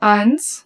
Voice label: German - 2